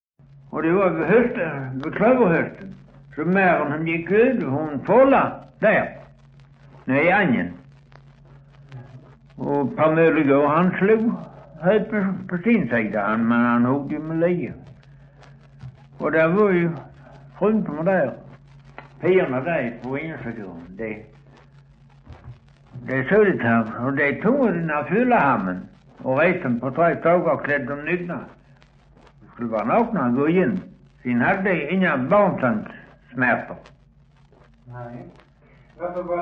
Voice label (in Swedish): Östra Ingelstad SK, dialekter; Folkminnen